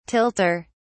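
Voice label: "tilter", British English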